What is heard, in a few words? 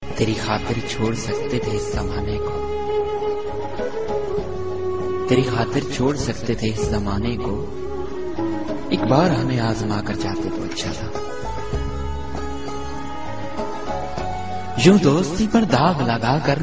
love feelings poetry ringtone